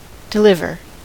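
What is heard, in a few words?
"deliver", American English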